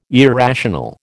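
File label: "irrational", American English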